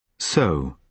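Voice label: "sow", British English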